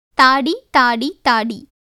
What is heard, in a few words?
Tamil; தாடி; tāṭi; [t̪aːɖi]; beard; 鬚